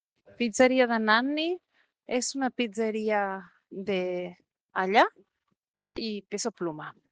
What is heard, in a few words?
Voice message